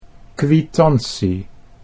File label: "kwitantie", Dutch